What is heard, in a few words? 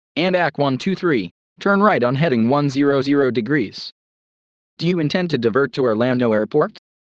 ATC Belly landing